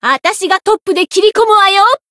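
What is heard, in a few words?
limitbreak, Japanese; sherinu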